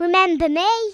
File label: revenge, Speech Kidz; Worms Armageddon